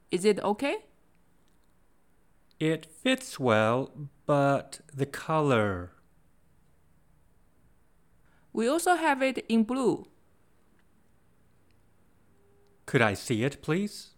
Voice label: basic english dialogs shopping clothing